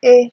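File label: "eh", Nigerian Pidgin, female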